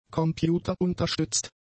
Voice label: "computerunterstützt", German; adjectives

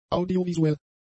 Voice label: "audiovisuell", German; adjectives

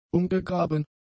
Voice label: "umgegraben", German; adjectives

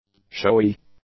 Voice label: "showy", English; adjectives

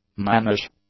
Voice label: "mannish", English; adjectives

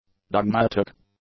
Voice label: "dogmatic", English; adjectives

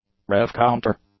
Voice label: "rev counter", English; nouns

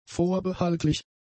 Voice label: "vorbehaltlich", German; adjectives

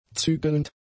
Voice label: "zügelnd", German; adjectives

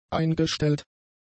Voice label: "eingestellt", German; adjectives

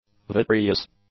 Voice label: "vitreous", English; adjectives

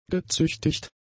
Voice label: "gezüchtigt", German; adjectives

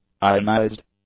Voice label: "ionized", english; adjectives

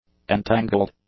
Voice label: "entangled", English; adjectives

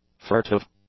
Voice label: "furtive", English

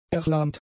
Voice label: "erlahmt", German; adjectives

